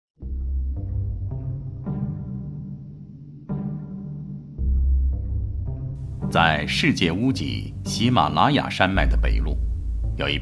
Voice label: 男, 纪录片; 舌尖-阿里

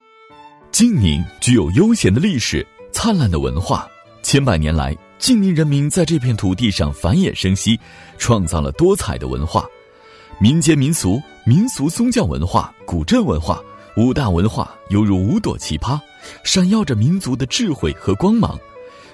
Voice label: 男 景区宣传 活泼 兴奋 自然 叙述

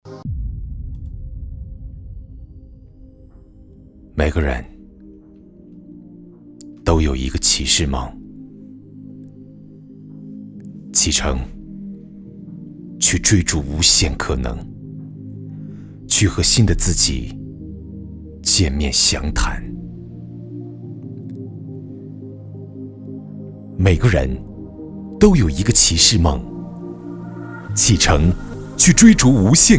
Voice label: 男 独白 酷 气势; 骑士梦

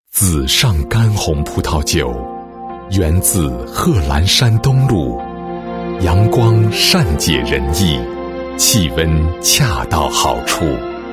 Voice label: 男 葡萄酒; 紫尚葡萄酒

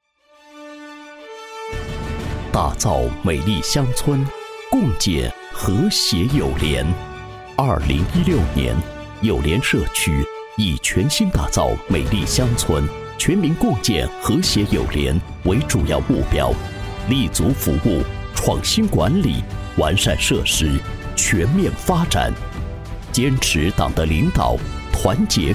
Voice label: 男 专题 政府工作汇报 成熟 大气 浑厚 气势

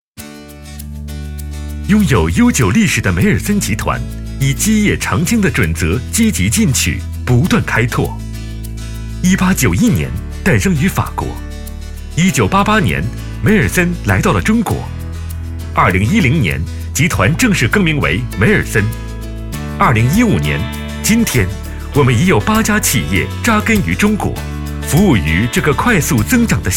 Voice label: 男; 美尔森

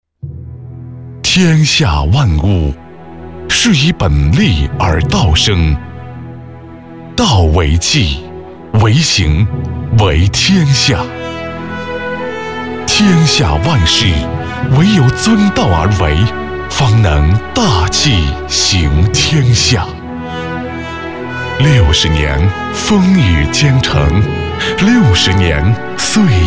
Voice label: 男 企业 专题片花; 杭氧

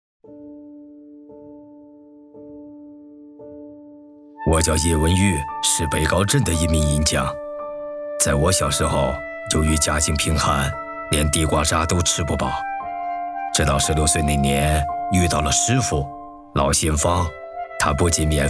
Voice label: 男 自述 自然 匠心

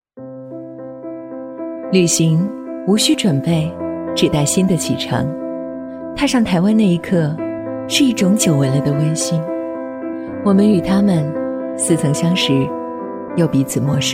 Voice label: 女 独白 清新 干练 旅行台湾

